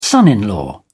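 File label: "son-in-law", British English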